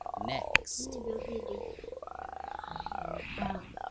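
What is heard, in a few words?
"lick", spoken word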